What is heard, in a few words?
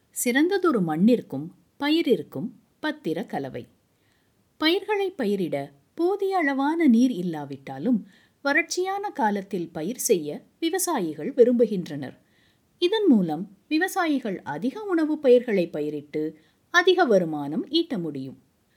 Tamil E-Learning